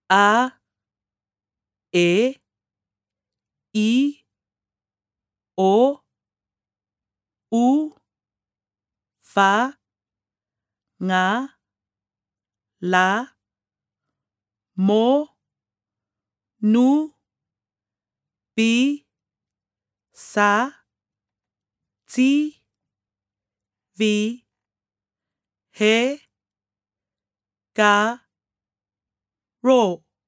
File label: Gagana Sāmoa alphabet female voice